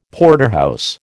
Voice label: "Porterhouse", American